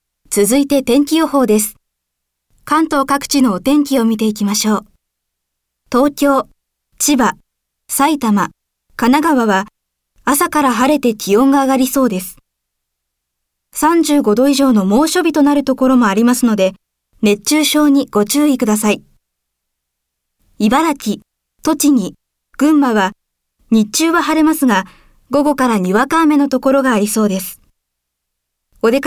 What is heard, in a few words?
narration